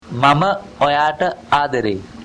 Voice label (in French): cinghalais